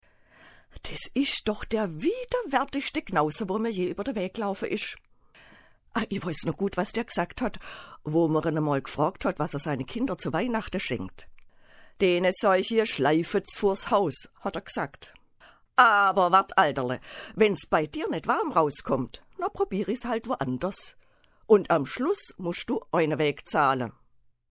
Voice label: schwaebisch; dr entaklemmer

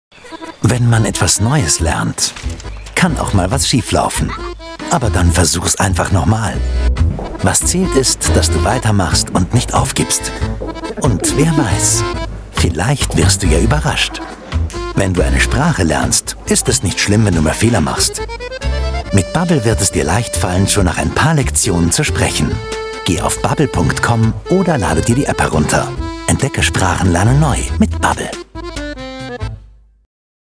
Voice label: werbung tv spot de; babbel

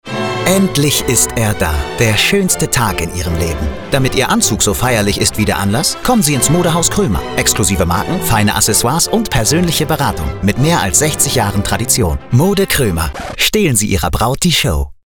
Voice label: Werbung

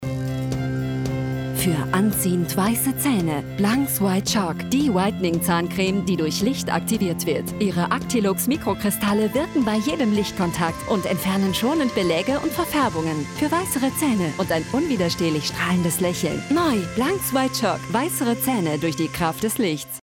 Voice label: tv spot national zahncreme kompetent charmant; blanx

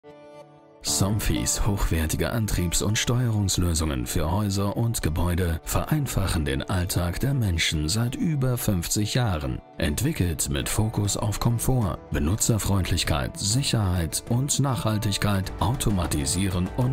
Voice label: imagefilm; somfy

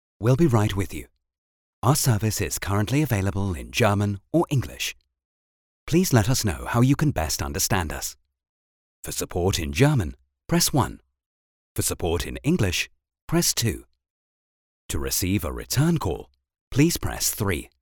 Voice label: telefonansage; vw

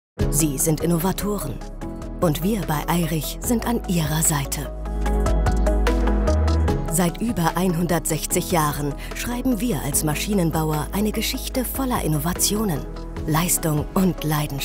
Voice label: imagefilm; eirich

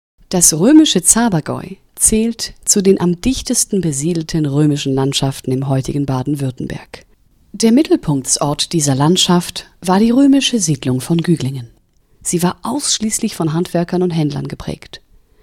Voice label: de audioguide; gugglingen

